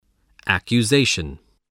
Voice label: "accusation", English voice